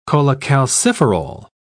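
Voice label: "cholecalciferol", British English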